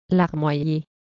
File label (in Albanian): "larmoyer", frengjisht